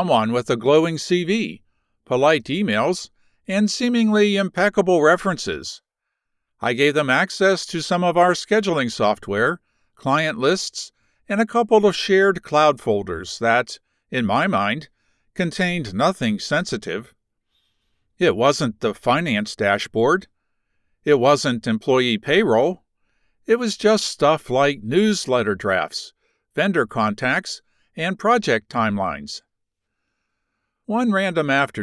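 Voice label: Non-Fiction 1st-person business conversational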